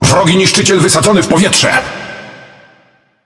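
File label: Speech Destroy Enemy Destroyer, Polish; World Of Warships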